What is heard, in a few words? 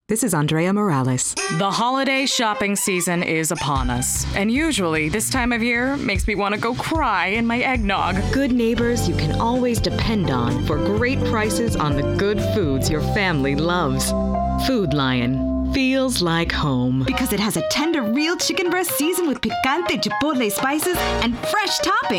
Commercial